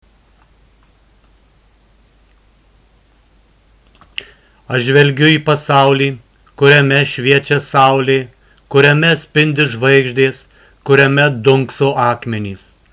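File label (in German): Morgenspruch Litauen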